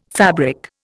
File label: "fabric", Indian